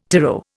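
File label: "tyro", Indian English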